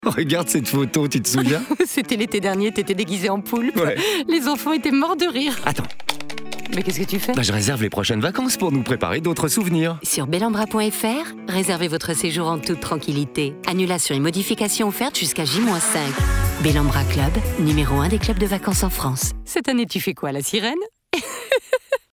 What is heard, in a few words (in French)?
pub comedie; belambra poulpe